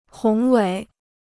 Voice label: "宏伟", Chinese

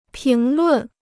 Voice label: "评论", Chinese

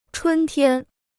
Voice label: "春天", Chinese

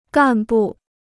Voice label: "干部", Chinese

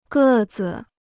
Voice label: "个子", Chinese

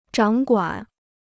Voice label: "掌管", Chinese

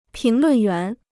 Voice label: "评论员", Chinese

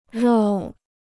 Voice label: "绕", Chinese

